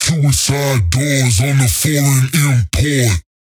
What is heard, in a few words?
Predrop Processed - Suicide Doors - 130 BPM, Vocals; Cymatics; Euphoria Vocal Sample Pack